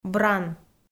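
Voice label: "бран", Komi, female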